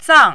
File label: "zang4", mandarin